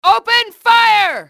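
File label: open fire, english female; XBOX; enemy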